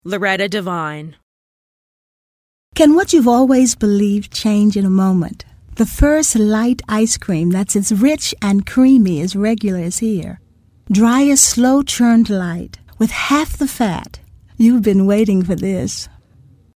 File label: commercial; Innovative LA